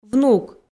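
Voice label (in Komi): "внук", Komi, female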